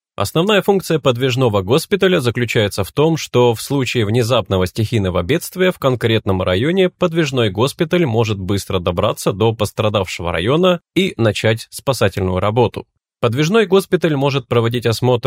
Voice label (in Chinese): 俄语男声